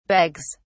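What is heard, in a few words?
"begs", en, uk British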